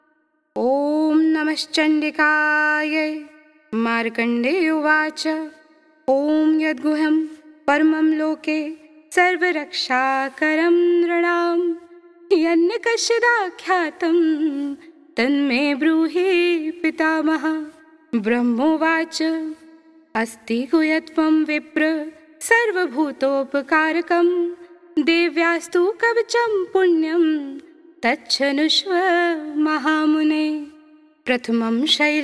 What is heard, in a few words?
sanskrit path